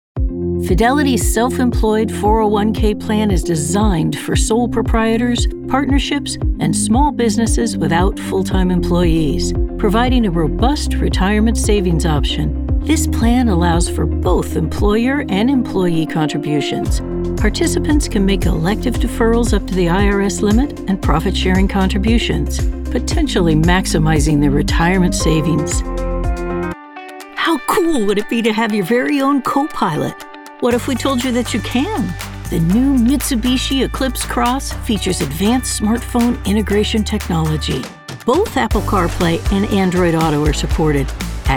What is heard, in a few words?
Explainer